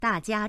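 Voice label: "da jia", Chinese, tones 4 and 1, female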